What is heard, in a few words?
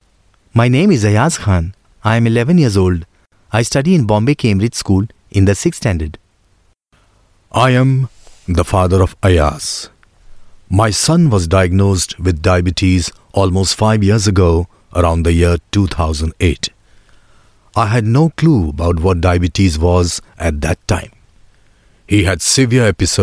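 Indian English Male English Documentary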